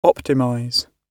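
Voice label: "optimize", British English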